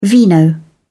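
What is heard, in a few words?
"vino", English, British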